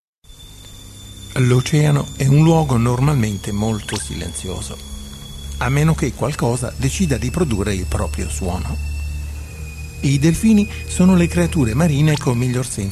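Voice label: Italian, male, Narration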